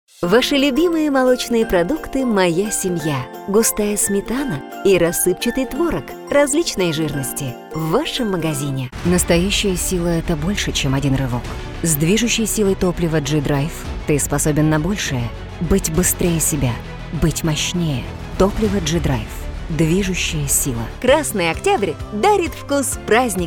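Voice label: Russian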